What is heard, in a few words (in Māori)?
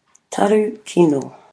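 "taru kino", Māori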